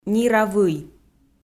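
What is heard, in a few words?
"нира вый", Komi, female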